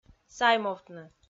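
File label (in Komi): "саймовтны", Komi, female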